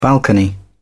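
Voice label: "balcony", British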